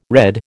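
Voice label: "redd", American English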